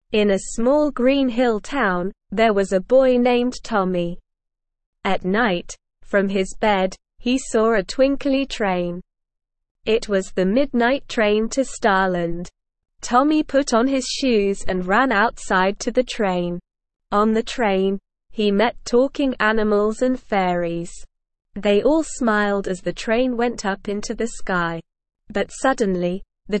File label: ESL Short Stories for Kids Beginner SLOW Reading; The Midnight Train to Starland